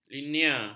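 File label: "linear", Malay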